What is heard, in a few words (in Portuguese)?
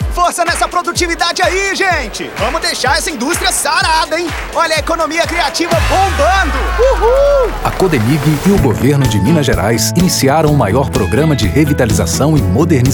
SPOT; CODEMIG; Distritos